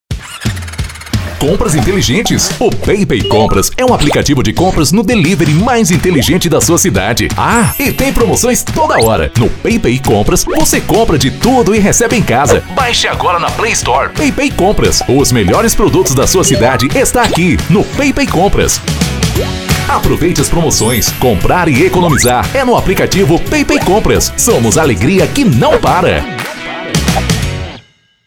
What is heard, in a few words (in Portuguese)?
Spot Especial